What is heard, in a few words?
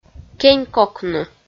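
"кень кокны", Komi, female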